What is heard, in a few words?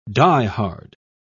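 "die-hard", British English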